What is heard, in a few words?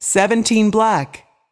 voice over, roulette; frenchroulette3